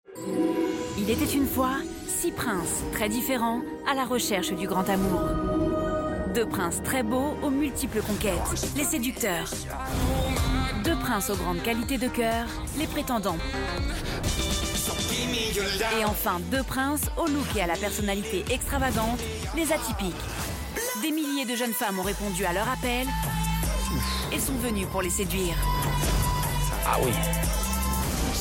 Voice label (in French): Voix Off; BA LPDLA Saison 4